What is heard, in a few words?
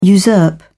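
"usurp", British English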